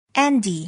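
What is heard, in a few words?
"andy", English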